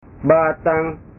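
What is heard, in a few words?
"batang", Indonesian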